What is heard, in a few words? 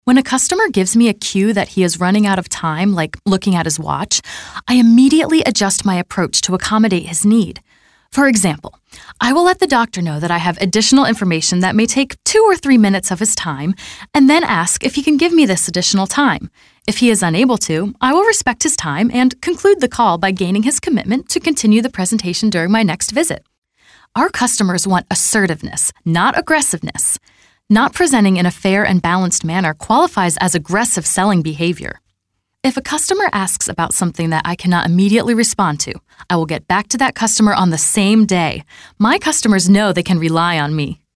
20s Female eLearning